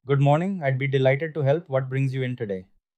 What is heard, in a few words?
formal